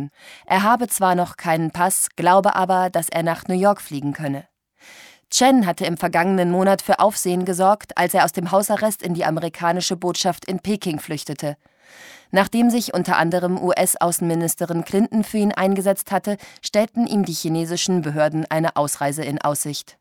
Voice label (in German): Nachrichten